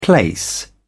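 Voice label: "place", British English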